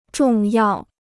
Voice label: "重要", Chinese

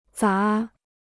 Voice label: "杂", Chinese

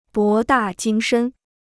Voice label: "博大精深", Chinese

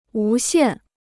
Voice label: "无限", Chinese

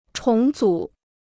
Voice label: "重组", Chinese

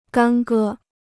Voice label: "干戈", Chinese

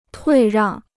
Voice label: "退让", Chinese

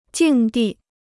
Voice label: "境地", Chinese

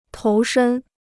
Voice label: "投身", Chinese

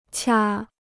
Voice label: "掐", Chinese

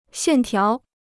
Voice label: "线条", Chinese

